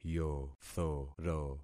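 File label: "yothoro", concatenated syllables; Affixing experiment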